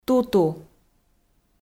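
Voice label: "туту", Komi, female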